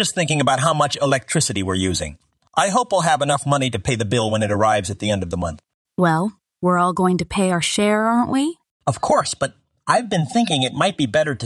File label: Antique Shop Dialogue